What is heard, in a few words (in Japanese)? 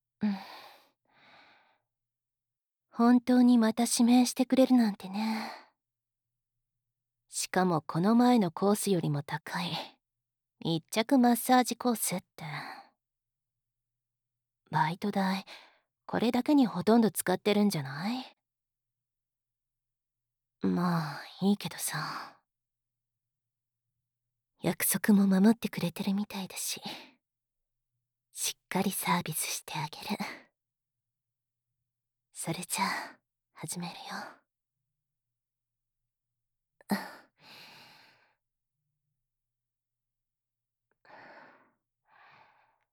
クラスメイトの密着マッサージ 効果音なし, ASMR